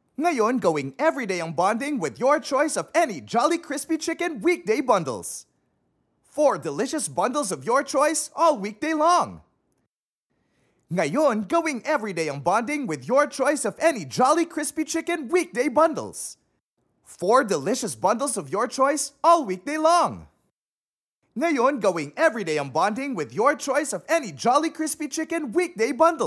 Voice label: Taglish, Selling, Friendly

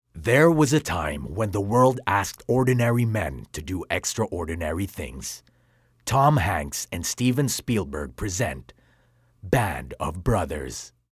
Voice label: English (Trailer)

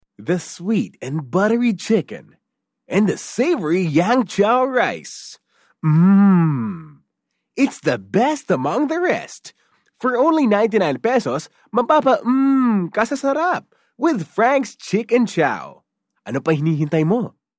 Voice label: Taglish (INviting, Seducing)

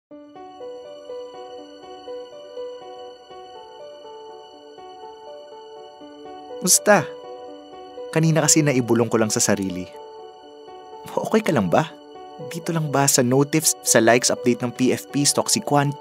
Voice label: Tagalog (Casual)

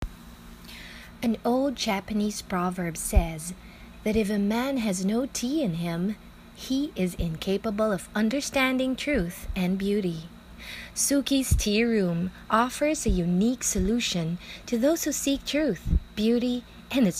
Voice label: English (Youthful, Selling)

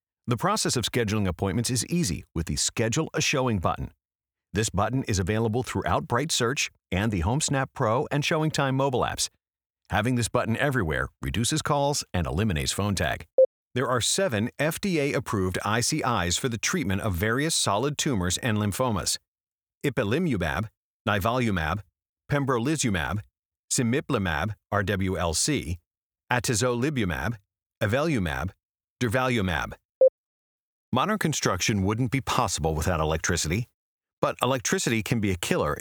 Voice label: English

